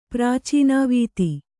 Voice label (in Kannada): "ಪ್ರಾಚೀನಾವೀತಿ", kannada